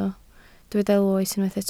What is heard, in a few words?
"loes", Welsh